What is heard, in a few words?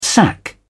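"sac", English, British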